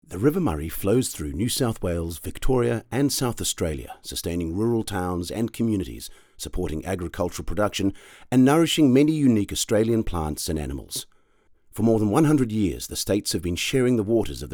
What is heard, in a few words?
VO audition; Murray River; Relaxed Trans-Tasman voice